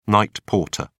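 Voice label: "night porter", English, British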